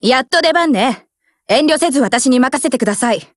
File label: HELLO JP; GF Alarm; M12